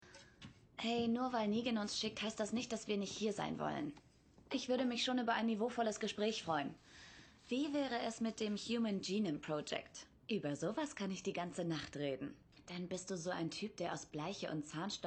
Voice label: Synchron; The Walking Dead

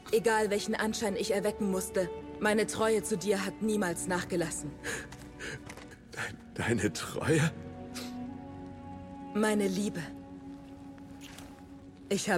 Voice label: Animation dramatisch

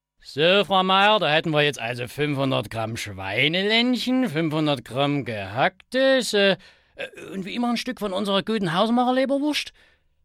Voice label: Saechsischer Fleischer; Saesischer Fleischer

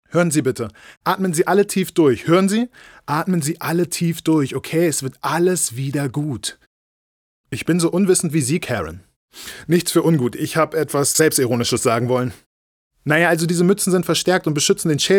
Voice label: Synchron Comedy

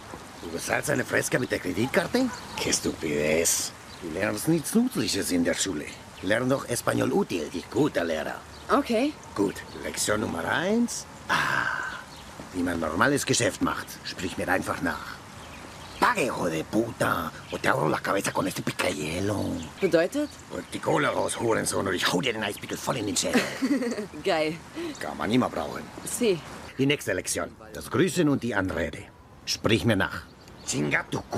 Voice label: Synchro DE SP

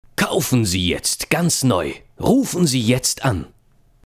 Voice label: Werbung

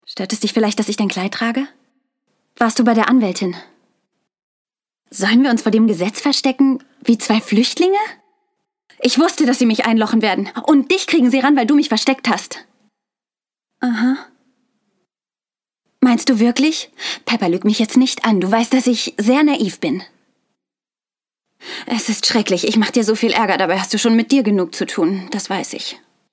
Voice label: Sprachprobe Synchron

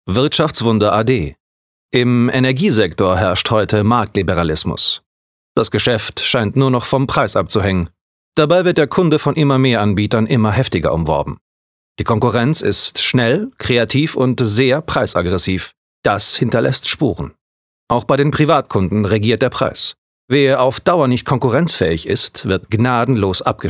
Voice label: Doku; Wirtschaftsartikel

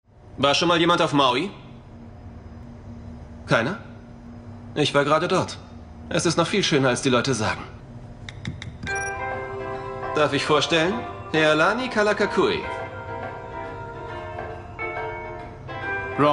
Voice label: Synchron - Nervenzusammenbruch; Arrogant (Hemlock Grove)

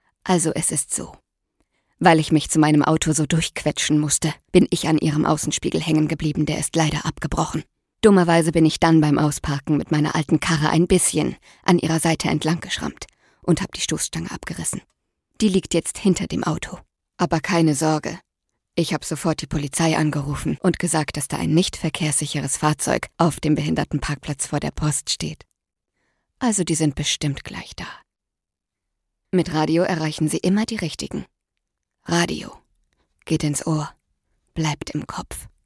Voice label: RADIOWERBUNG

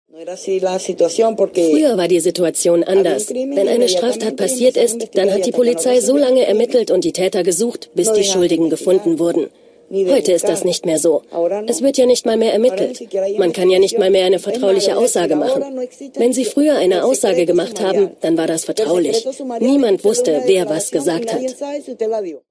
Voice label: Ausschnitt Arte-Reportage; Venezuela; nochmal Chávez

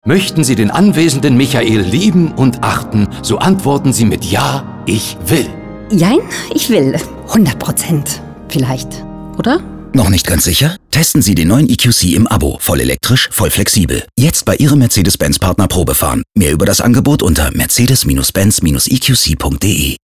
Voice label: radiowerbung pfarrer; Mercedes; Rolle Pfarrer

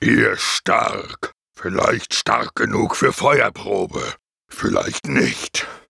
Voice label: Kreatur gross tief grummelig; DE

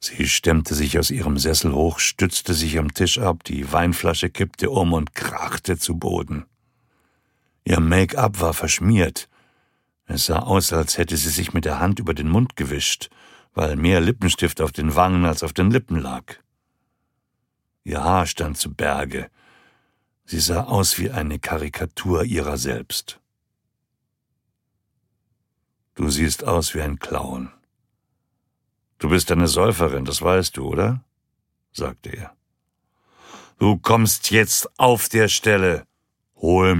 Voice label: Hoerbuch; Mats Olsson - Demut

